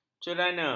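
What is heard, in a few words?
"cerana", Malay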